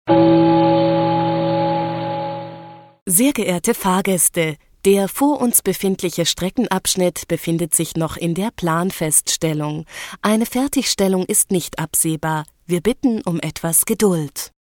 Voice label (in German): Ansage